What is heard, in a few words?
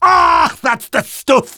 positive vocalization